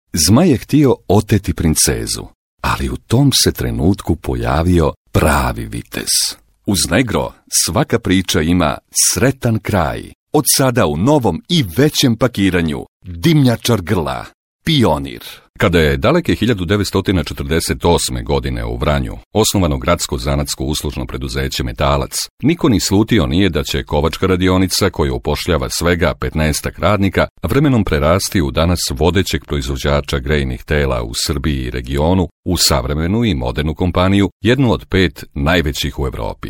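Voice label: serbian male narration voice; voice demos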